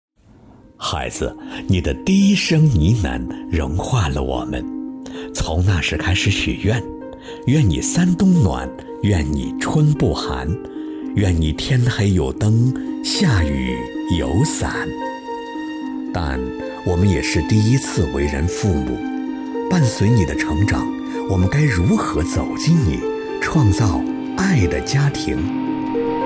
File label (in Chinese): 磁性温情宣传预告